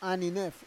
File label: "aninef", Roon